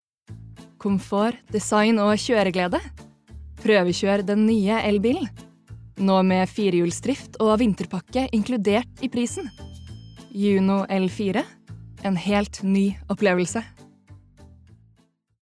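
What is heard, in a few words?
Norwegian advert, norwegian female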